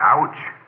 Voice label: ouch sarcastic, voice; ooh ahh; ow ouch